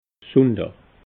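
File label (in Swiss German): "sunndaa", Alsatian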